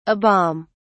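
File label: "a bomb", British English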